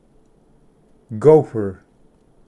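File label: "gopher", English; ᏥᎦᏯ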